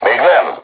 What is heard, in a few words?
voice message art reloading, crew tanks hungarian